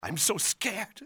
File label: scared, english male; XBOX; civ